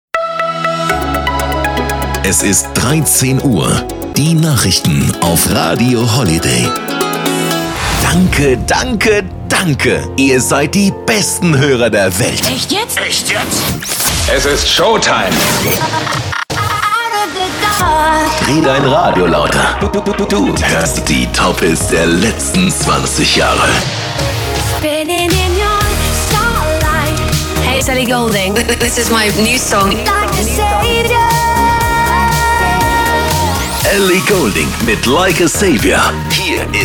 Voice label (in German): Sprecher Stationvoice Collage